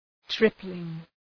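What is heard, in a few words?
"tripling", English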